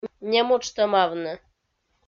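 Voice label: "немуджтӧмавны", Komi, female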